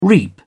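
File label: "reap", British English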